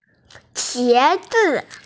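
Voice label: "qiezi", Mandarin Chinese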